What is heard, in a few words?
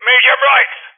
Vocal Medium Right; Rally Champ